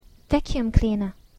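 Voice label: "vacuum cleaner; hoover", English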